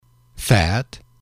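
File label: "fat", english, male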